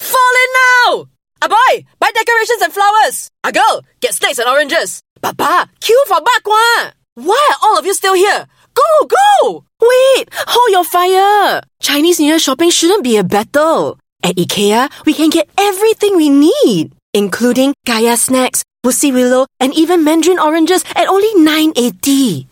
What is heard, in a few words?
Asian English; IKEA Military Mum